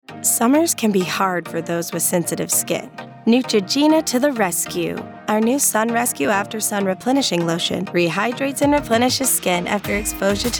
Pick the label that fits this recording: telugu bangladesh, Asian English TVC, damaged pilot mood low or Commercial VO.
Commercial VO